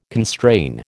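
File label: "constrain", American English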